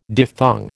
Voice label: "diphthong", American